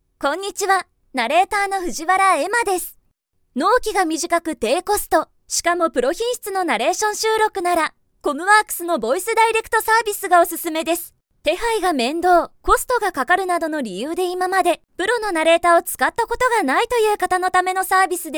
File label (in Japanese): 標準, 女性ナレーター